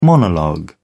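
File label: "monologue", British English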